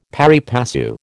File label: "pari passu", American